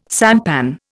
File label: "sampan", Indian